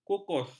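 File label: "kukus", Malay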